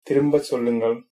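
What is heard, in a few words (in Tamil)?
say again, male, Tamil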